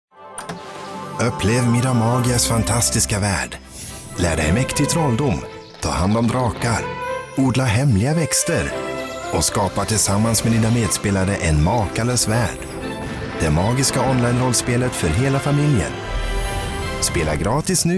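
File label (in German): Werbung Swedish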